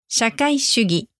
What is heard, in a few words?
"shakaishugi", Japanese; socialism